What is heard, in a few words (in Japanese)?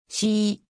"し", 日本語; 基礎日語五十音聽力